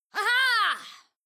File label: Female; Skyrim Special Edition; Dawnguard Beleval